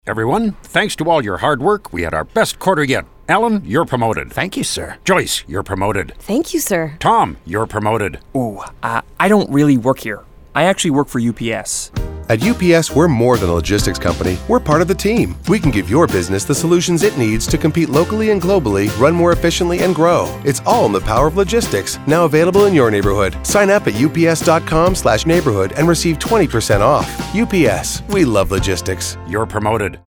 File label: Radio Promotion; Atlantic Expansion; UPS enters Atlantic Canada with new campaign